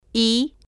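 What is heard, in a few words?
"i", spanish, female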